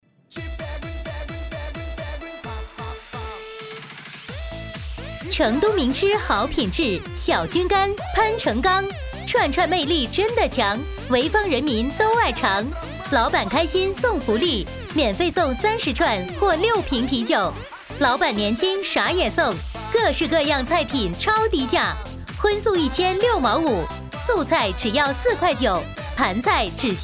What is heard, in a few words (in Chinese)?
促销小郡肝